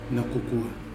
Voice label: "nɨkukua", Nafe; book

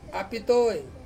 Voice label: "a pitoi", when, Ansus

